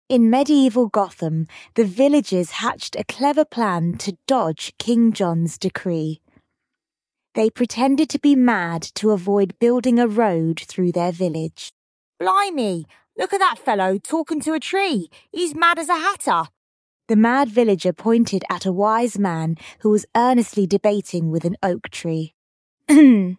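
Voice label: female British story; Three Wise Men of Gotham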